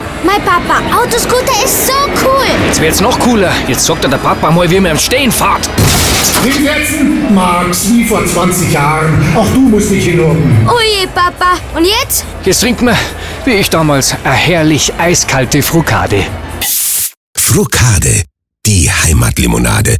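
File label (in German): bayerisch, Dialekt, Sprecher, Männer; Autoscooter; Frucade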